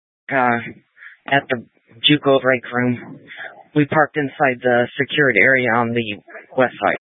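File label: Incident Tac 3, Scanner audio recording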